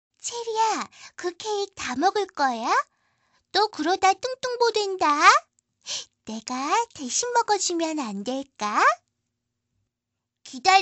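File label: Korean, female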